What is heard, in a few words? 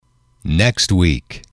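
"next week", English, male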